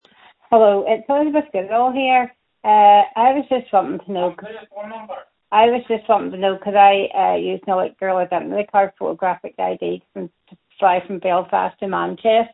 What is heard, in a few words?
call recording